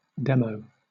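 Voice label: "demo", British English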